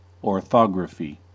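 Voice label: "orthography", American English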